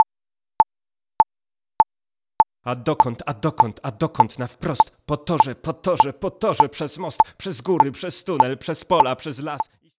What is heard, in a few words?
speech Pitch; phase -20